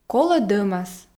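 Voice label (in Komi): колӧ дӧмас, Komi, female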